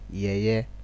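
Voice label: "yeye", Nigerian Pidgin, male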